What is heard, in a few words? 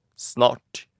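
"snart", Norwegian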